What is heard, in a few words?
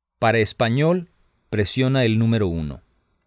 Spanish, hotline